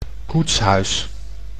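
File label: "koetshuis", Dutch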